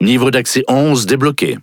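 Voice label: French Speech Access Level; World Of Warships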